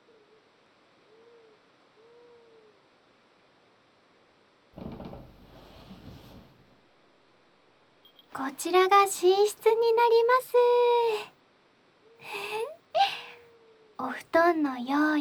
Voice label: 添い寝; ～まよいさんを抱きまくらだと思って～

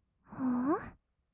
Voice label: "はー？", kawaii voice; 1200 kawaii voice!!; 色々なワンショット

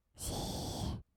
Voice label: "しーっ", kawaii voice; ASMR; 色々なワンショット

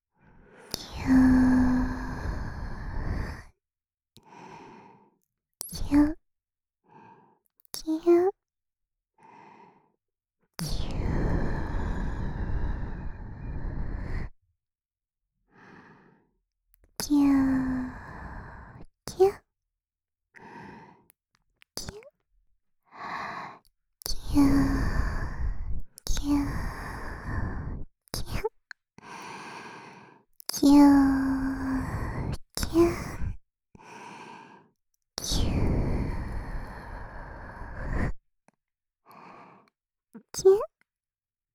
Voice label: ぎゅ～♪, ASMR, 右耳

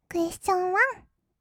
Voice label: "クエスチョンワン", kawaii voice; 音ゲー

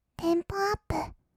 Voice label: "テンポアップ", kawaii voice; ASMR; 1200 kawaii voice!!; 音ゲー

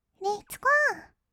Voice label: "レッツゴー", kawaii voice; 音ゲー

